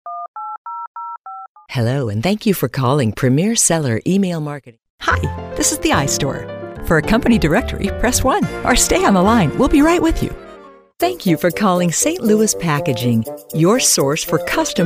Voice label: english american ivr